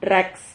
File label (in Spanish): "rrax", Silabas